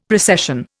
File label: "precession", Indian; Dictionary